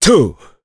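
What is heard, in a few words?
Vox Landing, Japanese; kingsraid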